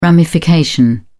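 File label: "ramification", British English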